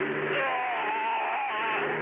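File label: German damaged pilot, speech; War Thunder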